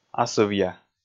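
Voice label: "асывъя", Komi, male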